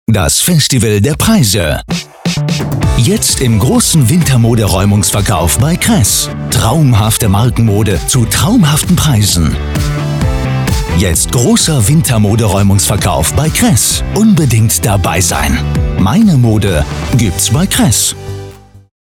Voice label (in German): Funkspot; Kress